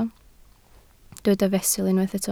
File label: "fesul", Welsh